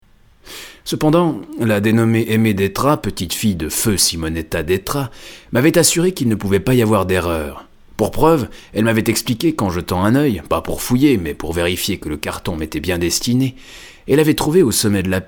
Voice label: french switzerland audiobooks; Swiss French; voice actor

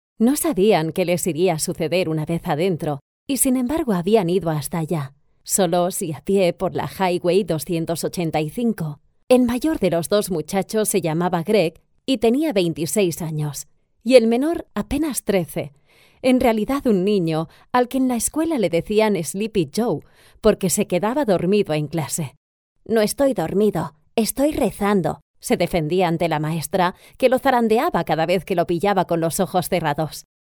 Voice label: spanish audiobooks

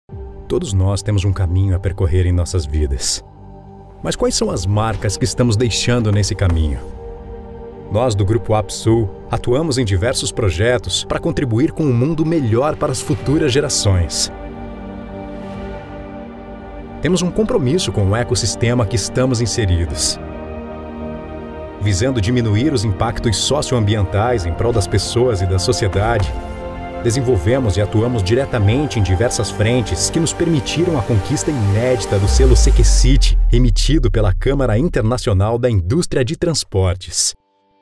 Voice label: portuguese brazilian corporate videos

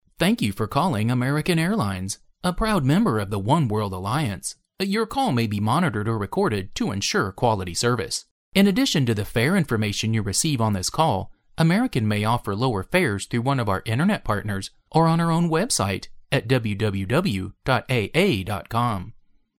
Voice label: english american ivr

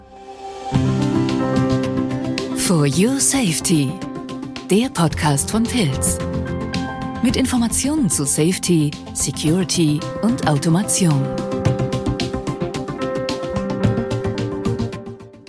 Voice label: German podcasts

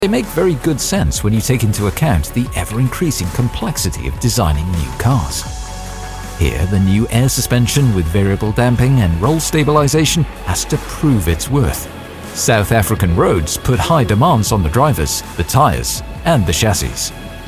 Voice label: english british narration

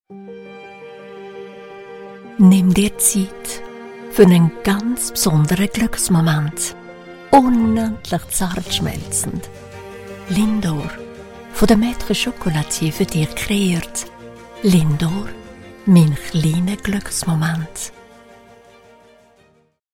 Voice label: german switzerland others; professional Swiss voice actor

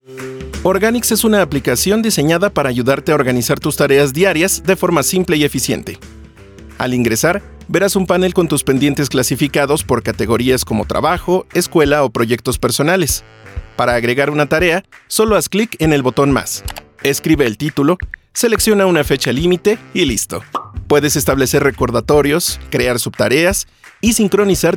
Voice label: spanish latin american explainer videos

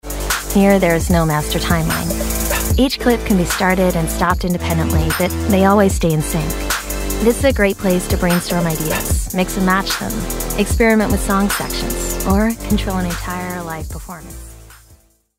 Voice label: english american promos

